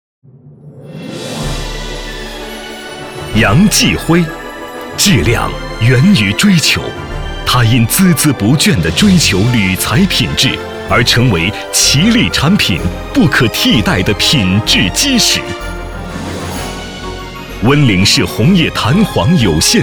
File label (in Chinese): 专题 颁奖 人物颁奖, 男国